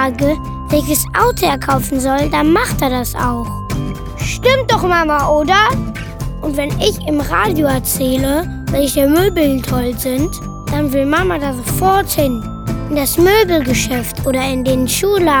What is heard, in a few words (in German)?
Werbung Kinderstimmen